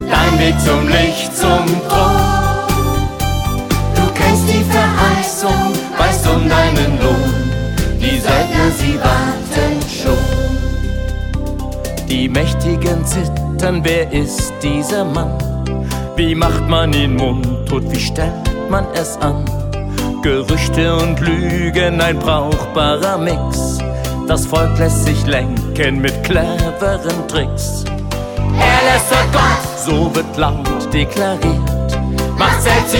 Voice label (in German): hoerspiel